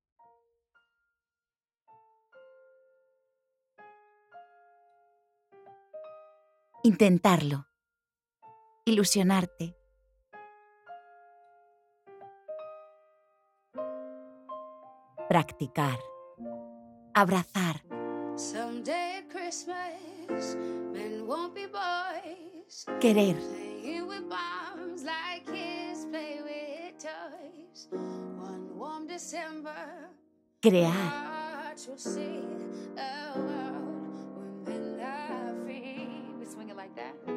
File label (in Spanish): Doblaje Publi Navidad